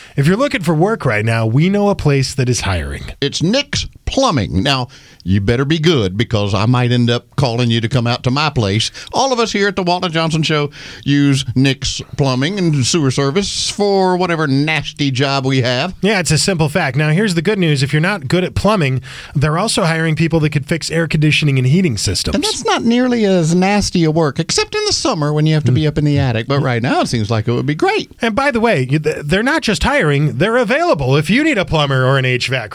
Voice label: RADIO AD; nicks plumbing radio ads